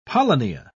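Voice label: "polynia", British English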